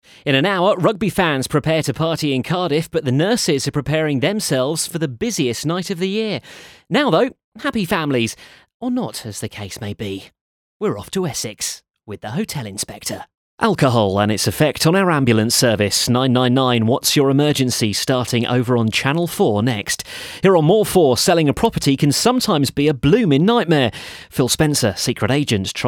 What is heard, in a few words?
continuity; reels